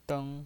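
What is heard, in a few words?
"deng" Mandarin Chinese, first tone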